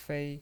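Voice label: "fei" Mandarin Chinese, first tone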